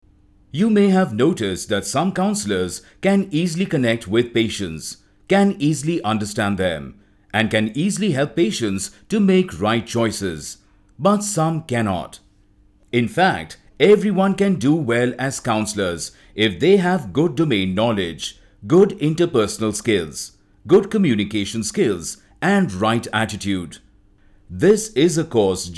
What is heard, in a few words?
eLearning IT